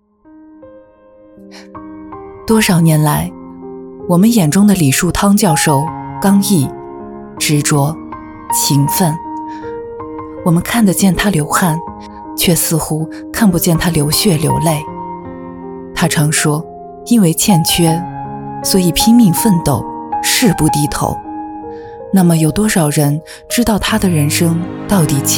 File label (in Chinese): 女 纪录片; 纪录片-李述汤教授